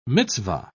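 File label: "mitzvah", British English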